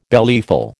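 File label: "bellyful", American English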